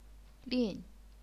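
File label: "lin", fourth tone, chinese pinyin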